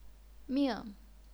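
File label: "ming", fourth tone, chinese pinyin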